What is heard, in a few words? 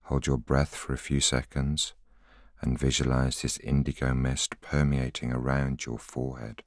voiceover